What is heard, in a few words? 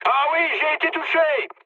aircraft damaged mood med, speech fr; War Thunder